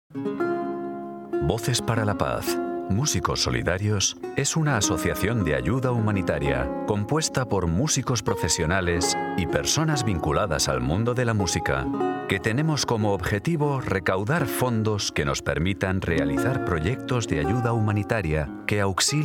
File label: spanish spain male corporate